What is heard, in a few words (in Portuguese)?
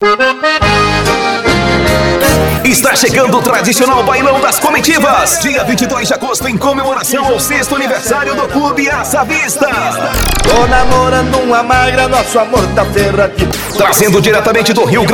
VOZ IMPACTO GRAVE vinheta; bailao das comitivas; vinhetas spots chamadas comerciais propagandas